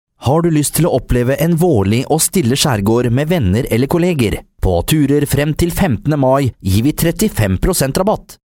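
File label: Norwegian commercial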